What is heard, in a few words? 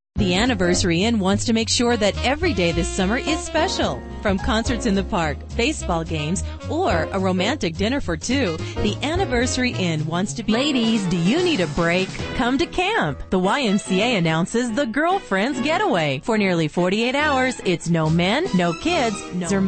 USA